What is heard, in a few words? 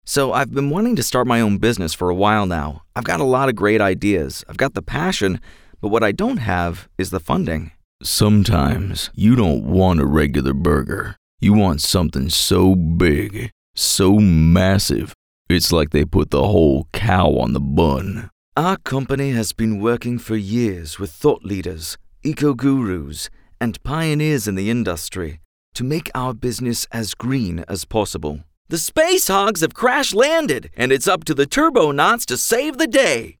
Commercial, voice only